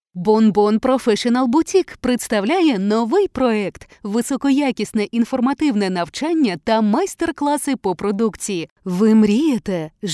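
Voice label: Ukrainian, female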